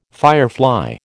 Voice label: "firefly", American